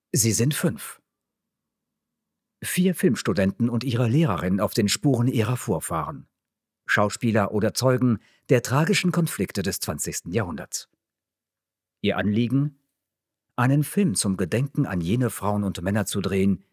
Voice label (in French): intro narrateur, de